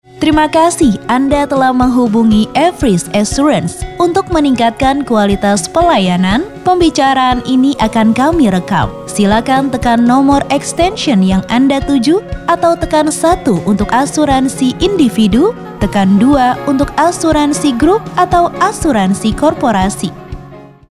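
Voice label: Indonesian interactive voice response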